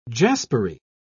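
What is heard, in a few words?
"jaspery", British English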